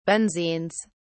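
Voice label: "benzenes", English, British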